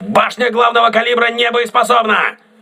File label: main caliber turret damaged, crew ships russian